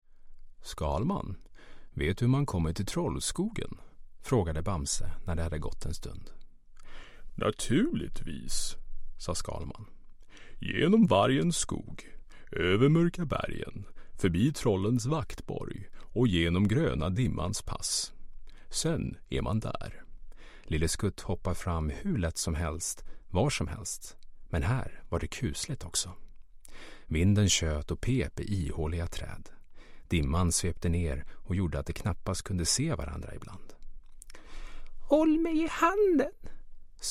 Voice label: Voice Over Swedish Childrens Narration